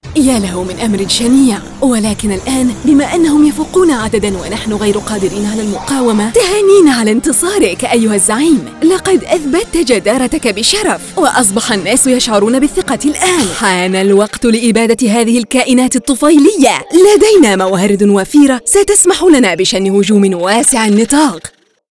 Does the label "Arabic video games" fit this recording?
Yes